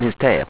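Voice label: "tail", english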